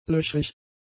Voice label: "löchrig", German; adjectives

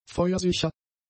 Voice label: "feuersicher", German; adjectives

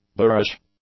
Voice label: "boorish", English; adjectives

